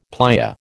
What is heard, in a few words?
"playa", American